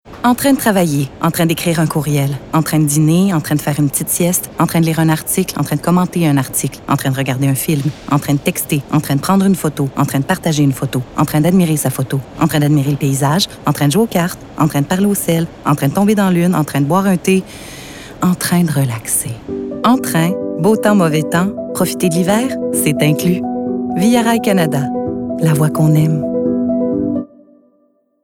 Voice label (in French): pub annonceur corpo fr normatif affirme promo grave adulte; via rail; adulte mature